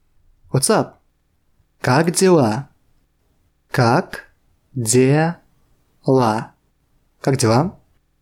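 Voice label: Russian What's up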